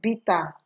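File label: "bitter", Nigerian Pidgin, female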